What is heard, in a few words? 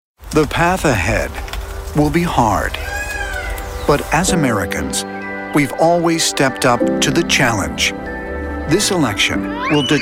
VO Political